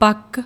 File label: "pak", Punjabi